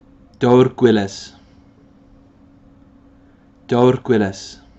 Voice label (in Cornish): "Dowr Gwyles", Place Names Pronunciation